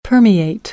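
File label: "permeate", American English; AmericanBritish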